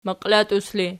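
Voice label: "maqilatus" ergative, Archi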